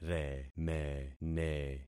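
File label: "remene", concatenated syllables; Affixing experiment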